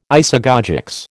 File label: "isagogics", American